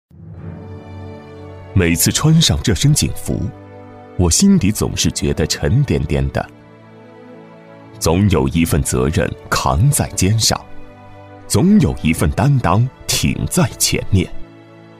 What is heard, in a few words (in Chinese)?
男-人物自述-走心 抒情; 每次穿上这身警服